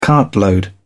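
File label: "cartload", English, British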